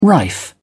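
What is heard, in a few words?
"rife", British English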